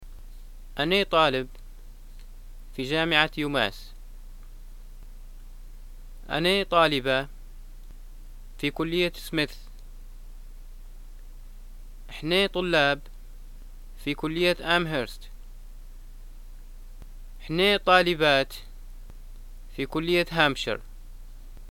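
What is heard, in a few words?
im student at, Libyan arabic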